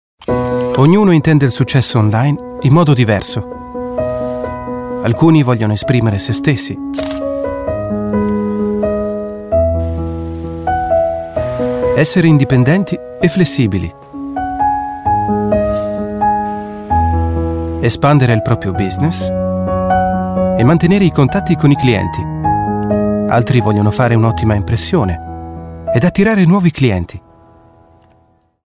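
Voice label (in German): Italian werbung, voicesamples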